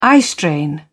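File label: "eyestrain", British English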